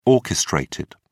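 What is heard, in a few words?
"orchestrated", English, British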